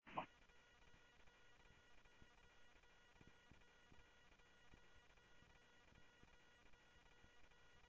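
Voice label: Sheriff East County, scanner audio trunk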